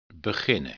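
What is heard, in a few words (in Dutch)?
"beginnen", Dutch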